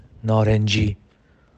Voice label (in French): "Orange" Farsi